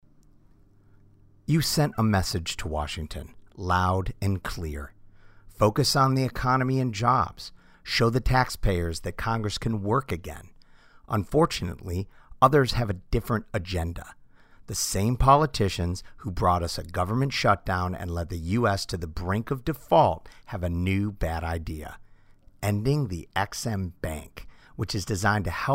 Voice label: Political TV Ad